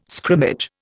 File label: "scrimmage", Indian